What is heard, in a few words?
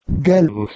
"ghelwos", PIE